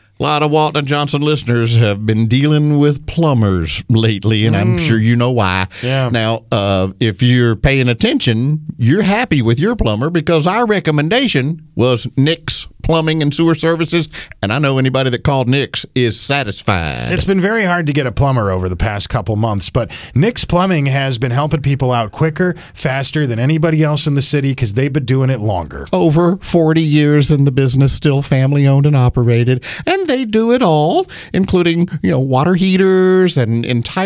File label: RADIO AD; nicks plumbing radio ads